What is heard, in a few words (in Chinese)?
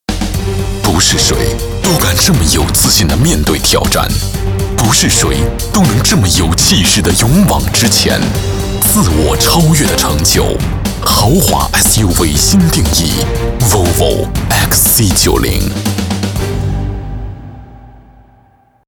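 男国大音-带感车广告; 沃尔沃xc90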